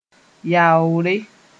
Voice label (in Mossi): "yaoore", moore